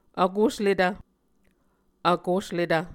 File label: "vkosletv", Muscogee